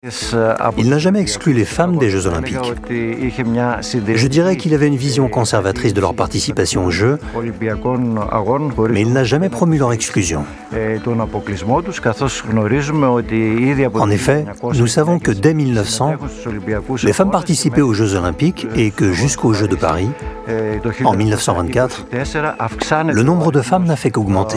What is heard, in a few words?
Voice over; Planete; Coubertin